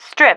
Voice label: "strip", female